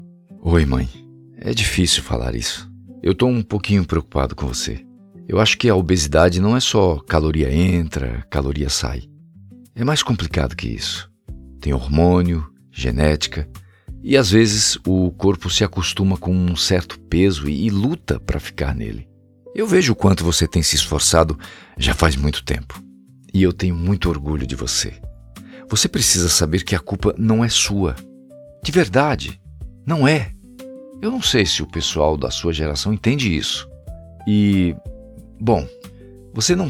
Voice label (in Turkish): seslendirme